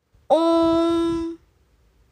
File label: "ong", Pinyin final